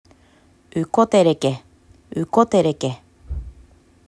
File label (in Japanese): "ウコテㇾケ", アイヌ語; ukoterke; アイヌ語クイズ; ウ u・互い コ ko・に対して テㇾケ terke・跳ねる